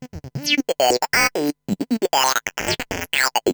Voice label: Bot Scat (135BPM), sci-fi